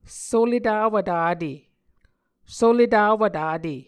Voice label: "soletawv tate", Muscogee